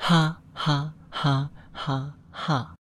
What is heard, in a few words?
happy